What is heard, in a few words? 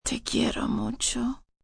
I Love You, Spanish, female; Talking; Endearment; foreign; VoiceBox